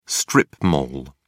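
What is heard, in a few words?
"strip mall", British English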